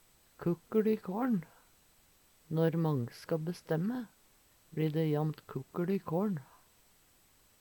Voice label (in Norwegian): "kukkeL", Numedalsmål